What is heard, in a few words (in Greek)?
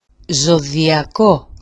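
"zodiako", Greek; ζωδιακό; [zoδia'ko]; zodiac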